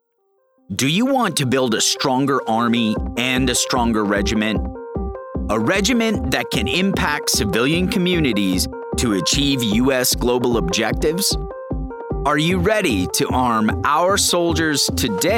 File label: recruitment commercial; Civil Affairs